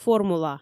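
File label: "формула", Komi, female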